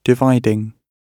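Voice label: "dividing", British English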